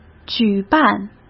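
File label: "举办", Mandarin Chinese; chinese flashcards; hsk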